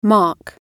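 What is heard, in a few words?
"mark", British English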